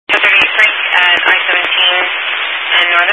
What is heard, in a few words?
Highway Patrol, scanner; DPS